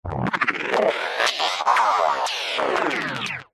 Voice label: science fiction robot glitch voice

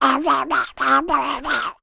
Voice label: cartoon duck voiced by human speaking

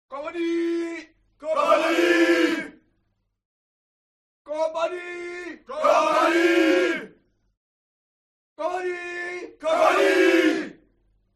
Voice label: HUMAN CROWD Military Shout German Attention; smartsound

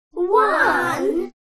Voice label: HUMAN VOCAL Kids Counting One; smartsound

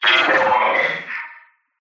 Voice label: Robot Lofi Voice; PMSFX

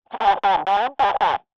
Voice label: emergency radio police garbled message; sound effects one

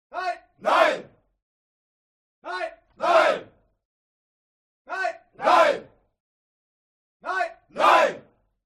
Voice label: HUMAN CROWD Military Shout German "No"; smartsound

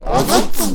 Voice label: Alien Scientist; little robot sound factory

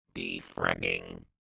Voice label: science fiction robot says "defragging"

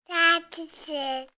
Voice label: human toddler 18 months boy say "sausages"

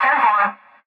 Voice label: Police Dispatch; Blastwave FX

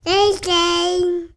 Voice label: human toddler 18 months boy say word gibberish

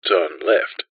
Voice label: technology sat nav voice male uk says turn left

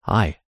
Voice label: human male says hi

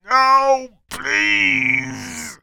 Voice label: voice male in peril says no please; human

